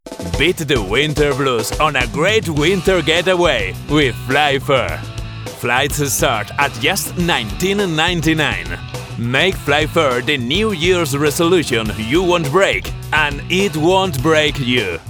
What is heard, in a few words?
english commercial